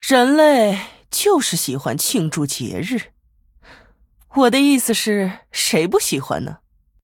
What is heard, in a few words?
Female Human HAPPY HOLIDAYS; zh